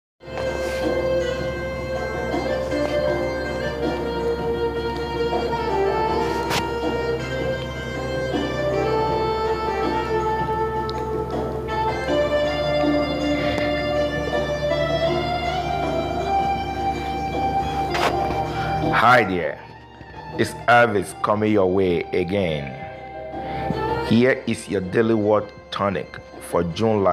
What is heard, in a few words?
memo voice